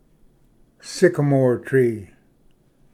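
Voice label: "sycamore tree", English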